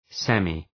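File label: "semi-" English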